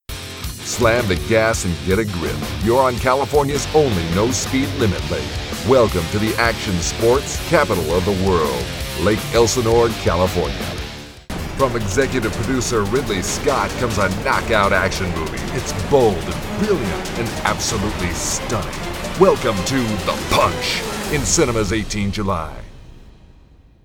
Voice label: promo trailer

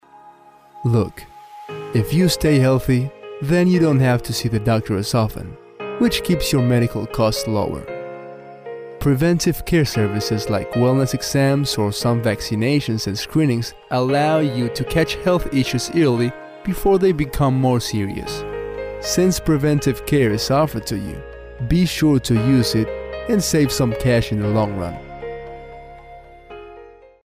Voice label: Mobile commercial

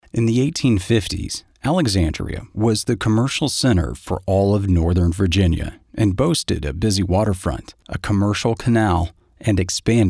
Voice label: Documentary VO; Alexandria

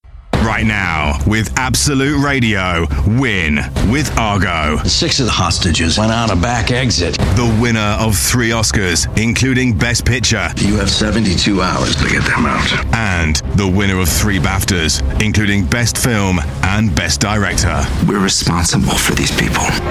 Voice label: Movie Trailers

